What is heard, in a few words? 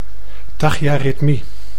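"tachyaritmie", Dutch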